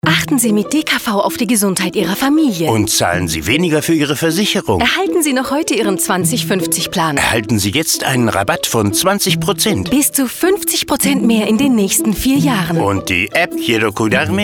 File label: Commercial, GERMAN MALE